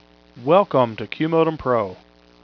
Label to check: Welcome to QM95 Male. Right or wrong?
Right